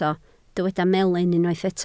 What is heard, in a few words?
"melyn", Welsh